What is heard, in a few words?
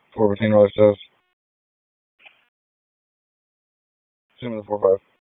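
Raleigh Police Southeast District Dispatch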